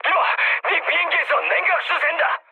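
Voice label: Korean damaged water mood high, speech; War Thunder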